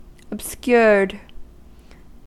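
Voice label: "obscured", US English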